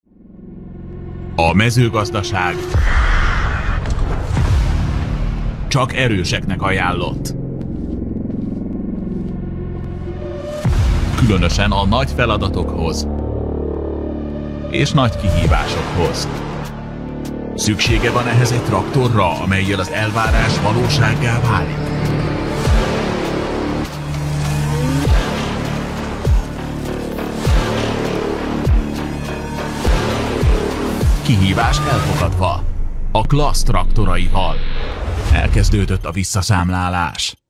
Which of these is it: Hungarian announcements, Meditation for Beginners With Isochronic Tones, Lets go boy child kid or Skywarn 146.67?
Hungarian announcements